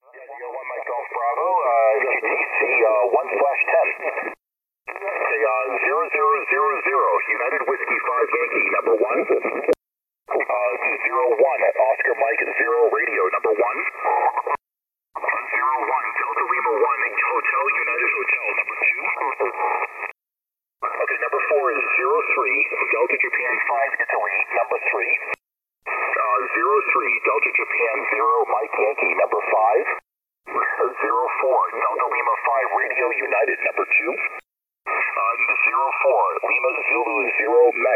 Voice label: ssb, contest